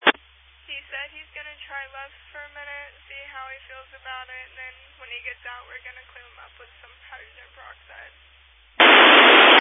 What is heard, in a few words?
Family Radio Service (FRS)